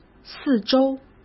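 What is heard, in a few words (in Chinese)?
"四周", Mandarin Chinese; hsk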